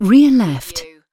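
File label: "rear left", British female; surround; lynne publishing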